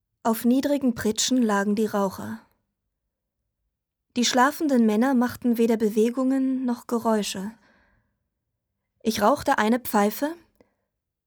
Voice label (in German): Hoerbuch